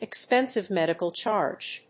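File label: "expensive medical charge", English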